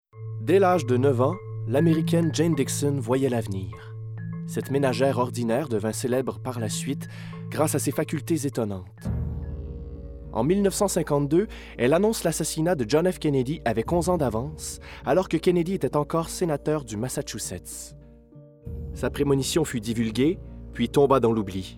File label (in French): narration documentaire